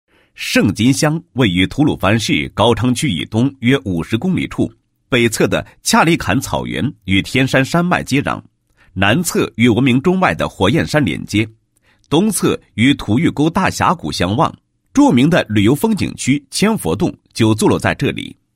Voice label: 男27, 专题宣传; 供电所; 胜金供电所专题宣传

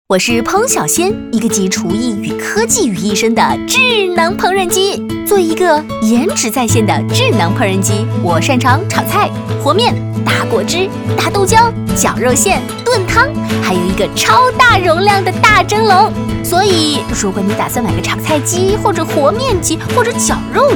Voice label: 女 广告 病毒风; 烹小鲜

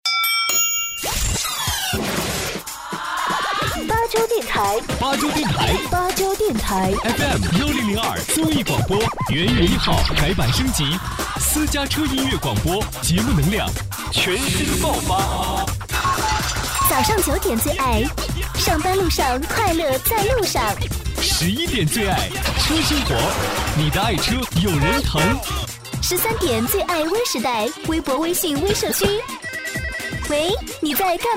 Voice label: 男-广电片花-青春活力-电台配音; 巴州电台配音

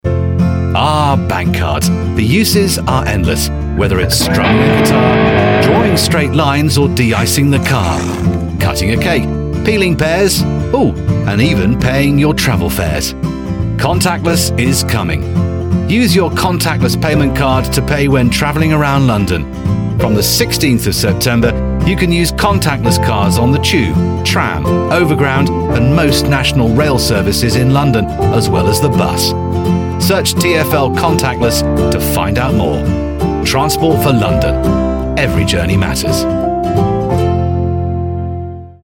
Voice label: 外籍 伦敦非接触式信用卡广告 欢快; 英国广播公司电台、电视台和英式英语配音

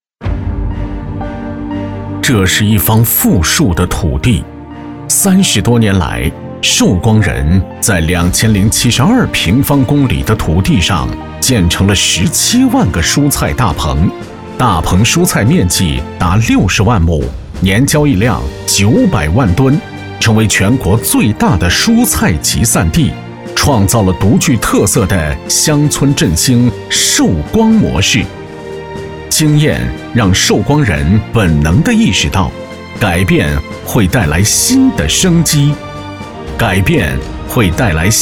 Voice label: 男 舌尖风宣传片

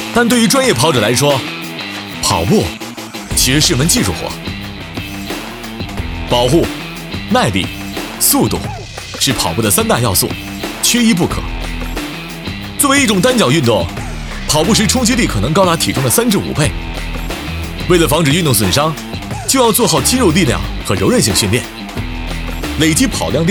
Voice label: 男 广告 品牌 跑步 运动年轻时尚; 安踏

